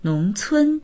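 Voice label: "nong2cun1", Mandarin Chinese; 农村; nóngcūn; 農村; HSK４級必修単語集（H～O音声付）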